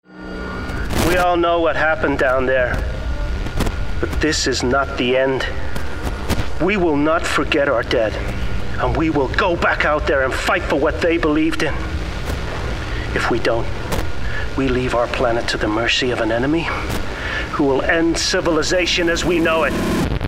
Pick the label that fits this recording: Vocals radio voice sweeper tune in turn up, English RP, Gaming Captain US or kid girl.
Gaming Captain US